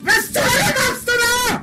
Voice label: angry; spackenmobs